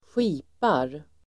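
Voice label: "skipar", Swedish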